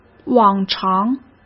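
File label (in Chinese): "往常", Mandarin; chinese-flashcards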